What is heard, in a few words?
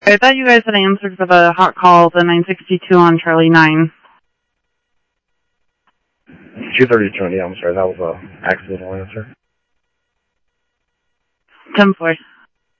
Phoenix PD, scanner